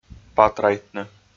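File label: "патрайтны", Komi, male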